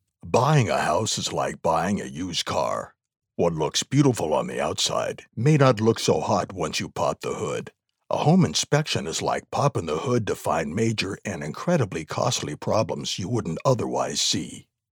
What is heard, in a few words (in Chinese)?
浑厚深度旁白男